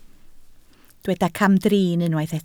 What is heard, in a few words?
"camdrin", Welsh